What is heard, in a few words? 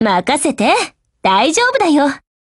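BLACK ACTION Japanese, Voice; GF Alarm; EVO3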